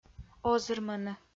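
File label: "озырмыны", Komi, female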